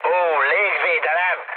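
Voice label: Hungarian damaged aa mood med, speech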